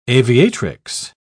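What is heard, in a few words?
"aviatrix", British English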